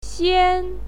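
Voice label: "xian1", Chinese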